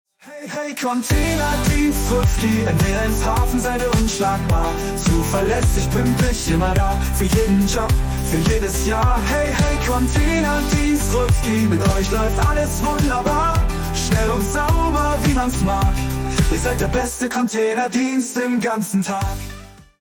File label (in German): radiospot